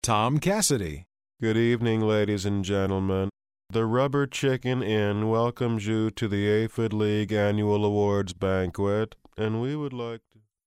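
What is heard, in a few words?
flat, voice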